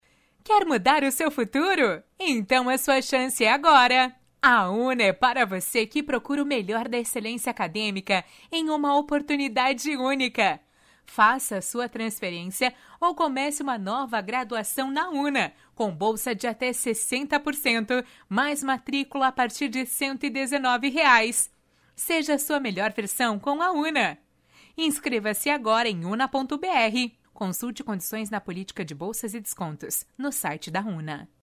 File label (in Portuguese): Feminina